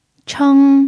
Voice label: "cheng1", Chinese pinyin

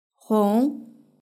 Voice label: "hong", Chinese; hsk2

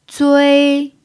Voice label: "zui1", Chinese pinyin

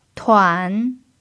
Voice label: "tuan3", Chinese pinyin

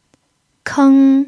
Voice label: "keng1", chinese pinyin

